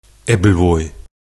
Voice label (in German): Platt Apfelwein